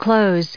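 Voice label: "clothe", US English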